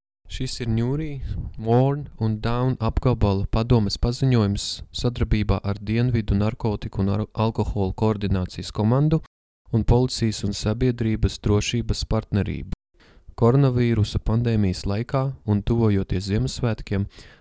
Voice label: Alcohol Awareness Latvian